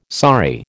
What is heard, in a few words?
"saree", American